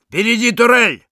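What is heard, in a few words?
Sniper sentry ahead, Russian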